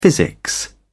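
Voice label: "physics", British English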